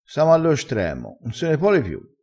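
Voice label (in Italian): "stremo", vocabolario dialettale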